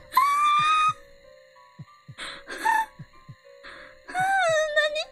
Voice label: ヘタレボイス; Flashpoint Ultimate 13; TheHouse

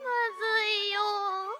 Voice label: "まずいよー", 感嘆

